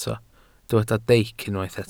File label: "deic", welsh